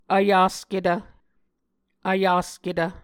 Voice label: "vyasketv", Muscogee

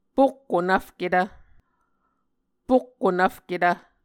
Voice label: "pokko nvfketv", Muscogee

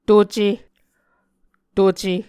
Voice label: "tuce", Muscogee

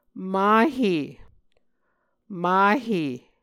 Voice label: "mahe tall", Muscogee

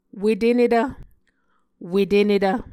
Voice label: "wetenetv", Muscogee

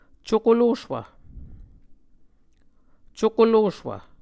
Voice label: "cukoloswv", Muscogee

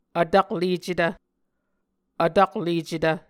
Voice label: "vtaklecetv", Muscogee

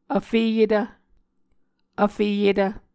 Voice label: "vfeyetv", Muscogee

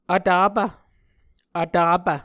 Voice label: "vtapv", Muscogee

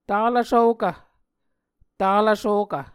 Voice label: "talv svokv", Muscogee

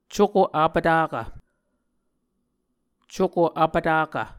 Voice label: "cuko vpvtakv", Muscogee

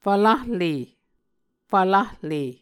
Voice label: "fvlahle", Muscogee

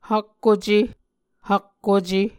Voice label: "hakkuce", Muscogee

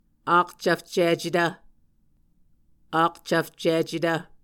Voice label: "akcvfcicetv", Muscogee; Mvskoke Words with Voicing Consonants; A Dictionary of Creek/Muskogee; SIL International

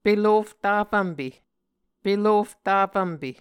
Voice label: "pelof tafvmpe", Muscogee; Mvskoke Words with Voicing Consonants; A Dictionary of Creek/Muskogee; SIL International; Onvkv Ohhvyayices

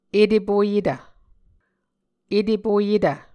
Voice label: "etepoyetv", Muscogee

